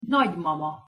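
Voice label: "nagymama", Hungarian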